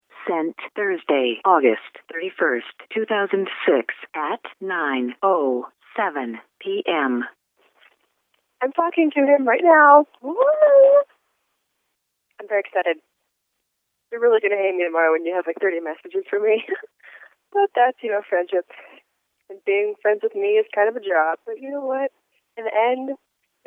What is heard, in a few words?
voicemail